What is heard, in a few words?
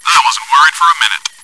cheer; svencoop